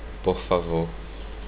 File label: please, portuguese